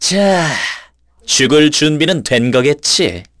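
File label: Vox Skill, Korean; Ezekiel; kingsraid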